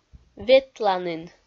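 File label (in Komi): "ветланін", Komi, female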